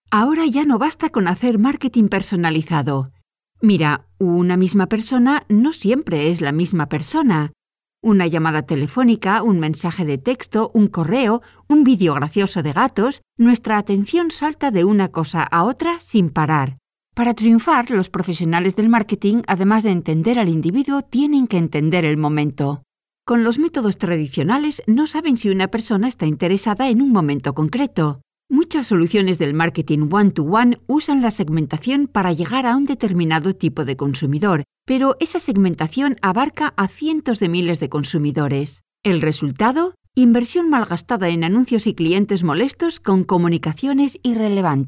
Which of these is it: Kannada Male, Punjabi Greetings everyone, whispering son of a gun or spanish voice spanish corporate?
spanish voice spanish corporate